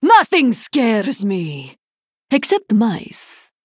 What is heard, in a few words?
Female Draenai Play